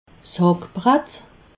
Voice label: "hackbratt", alsace

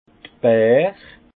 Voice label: "beer", alsace; vin

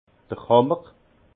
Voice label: "hammer", alsace; vin; marteau

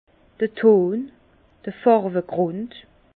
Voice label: "ton", Bas Rhin

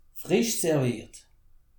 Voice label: "frisch serviert", alsace

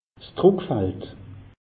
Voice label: "drùck feld", alsace; meteo

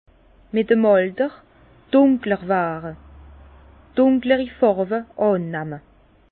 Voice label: "dunkler", Bas Rhin; foncer avec l'âge; vin

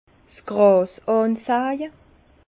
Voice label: "gras ansaje", Bas Rhin; vin; enherbage

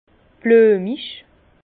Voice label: "bluemig", Bas Rhin; floral; vin

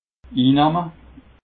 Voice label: "innahme", alsace

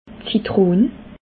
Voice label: "zitron", alsace

